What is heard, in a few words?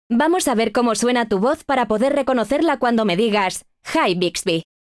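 wake up ready Spanish; Bixby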